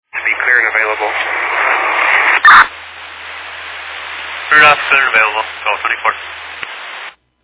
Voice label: Fire Dispatch

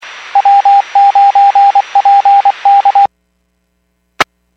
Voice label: Skywarn 146.67; Stevens Point

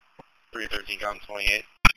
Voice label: Police Sheriff Dispatch

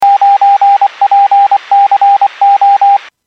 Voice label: Skywarn 146.67; Stevens Point

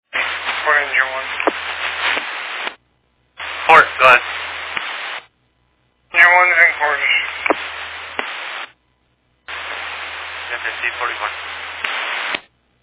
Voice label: Fire Dispatch

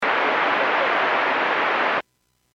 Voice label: Airports 122.7, Radio; KSTE

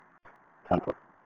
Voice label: Police Sheriff Dispatch

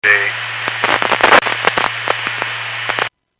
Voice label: Skywarn 146.67; Stevens Point

